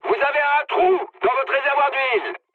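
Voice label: French damaged oil mood high; War Thunder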